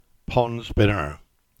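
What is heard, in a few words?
"Binner Bridge" Cornish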